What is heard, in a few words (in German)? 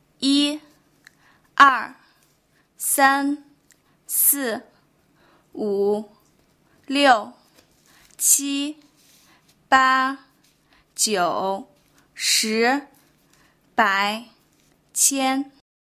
Chinesisch